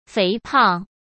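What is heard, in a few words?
"肥胖", Mandarin Chinese; hsk; chinese-flashcards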